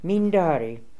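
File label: "Mindari", pronunciations; Fourth Way; Beelzebub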